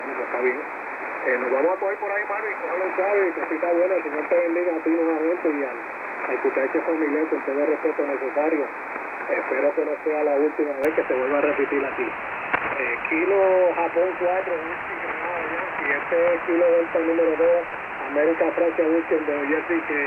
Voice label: Amateurfunk 20m USB; 14250khz

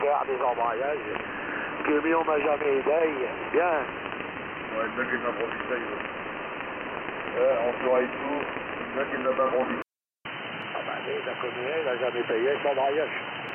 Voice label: USB Fischereifunk; 8250khz; Der Perseus hat dies nicht, dafür rauscht es vernehmlich. Empfang in USB und 3KHz Bandbreite. Antenne: ML200; Cloud-IQ

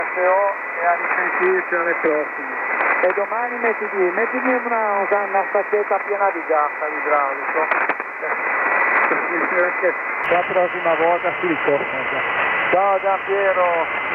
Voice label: Amateurfunk 80m LSB; 3725khz

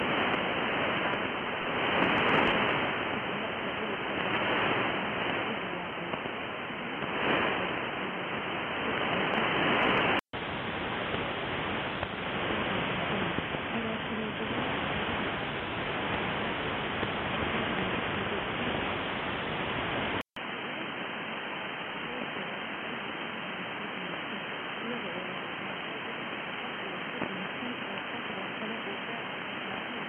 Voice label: 17845KHz, Schwieriges Signal; Radio Ergo; Die ruhigste Verstärkungsregelung hat der Winradio G33DDC.; NRD545; IC-R8600

